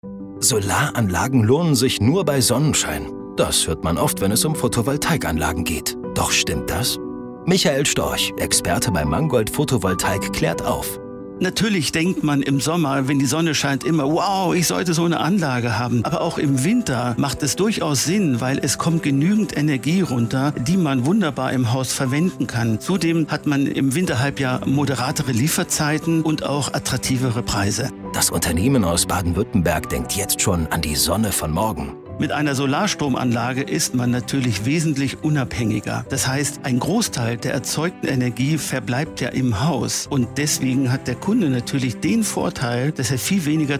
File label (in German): Informercial; Mangold-Photovoltaik-Anlagen